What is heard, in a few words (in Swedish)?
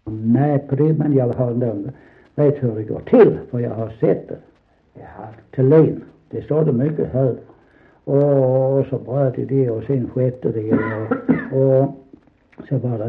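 Sankt Olof Skåne, Svenska sagor, dialekter; Folkminnen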